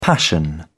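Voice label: "passion", British English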